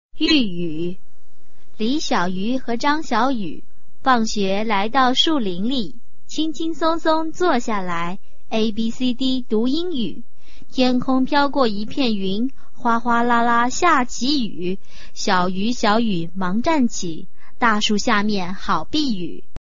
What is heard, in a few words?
chinese tongue twister